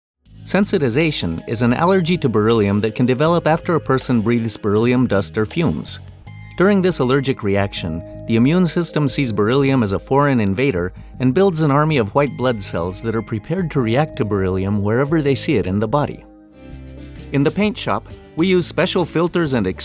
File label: English eLearning; voiceover